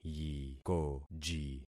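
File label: "yikoji", concatenated syllables; Affixing experiment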